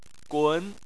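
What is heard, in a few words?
"gun", Chinese, second tone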